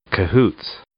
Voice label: "cahoots", English